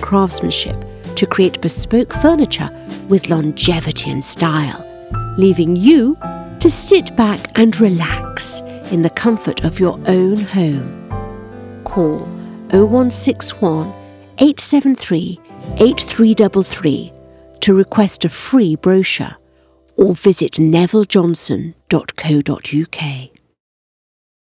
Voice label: Voice Over M Ad; Rothschild